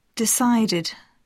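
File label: "decided", English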